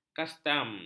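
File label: "kastam", Malay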